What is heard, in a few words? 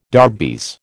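"darbies", American English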